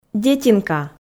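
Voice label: "детинка", Komi, female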